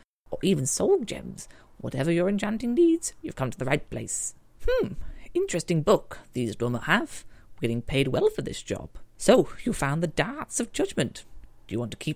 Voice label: wood elf audition stinging

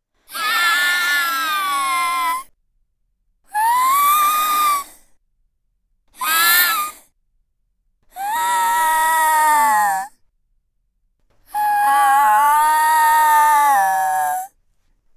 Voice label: creature audition

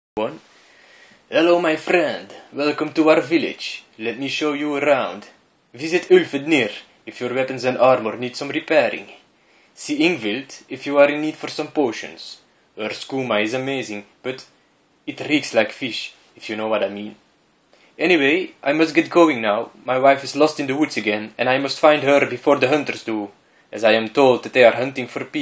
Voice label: nordic voice; skywind

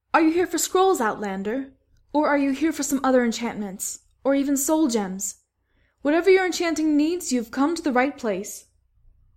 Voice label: voice audition wood elf female; skywind

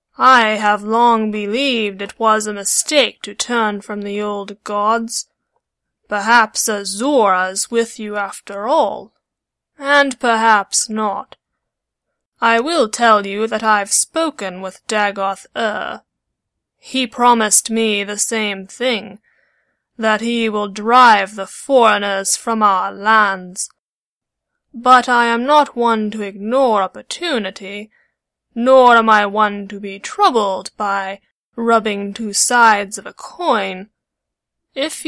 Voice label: dunmer female audition, dark elf voice auditions

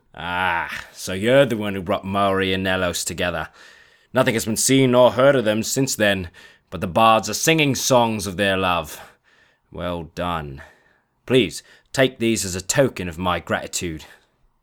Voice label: cockney-ish breton

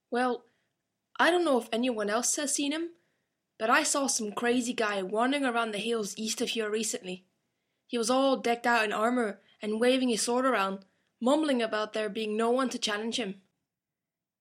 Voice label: breton voice auditions; skywind; helviane desele

